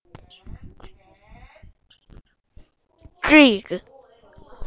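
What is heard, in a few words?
"jig", spoken word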